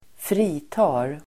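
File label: "fritar", Swedish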